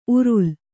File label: "ಉರುಲು", Kannada